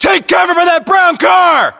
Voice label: order cover car brown, us